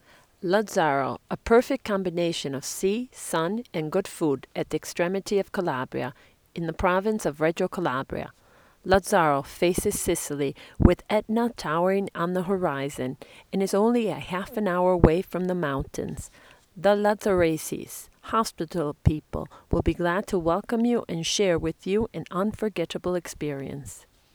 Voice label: English